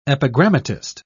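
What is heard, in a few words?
"epigrammatist", British English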